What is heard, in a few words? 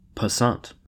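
"passant", US English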